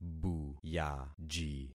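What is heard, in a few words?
"buyaji", concatenated syllables; Affixing experiment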